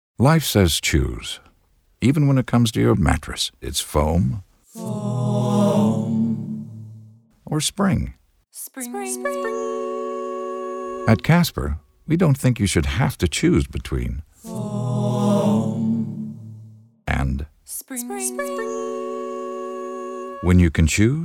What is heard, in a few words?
Radio; Casper Spring Foam